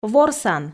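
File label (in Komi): "ворсан", Komi, female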